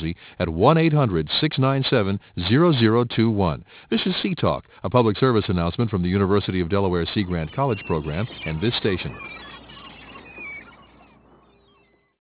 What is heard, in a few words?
Marine Forecast; Sea Talk